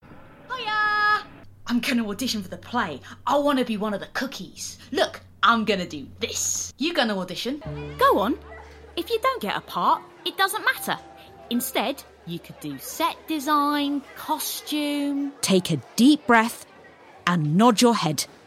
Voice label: Character kid boy cheeky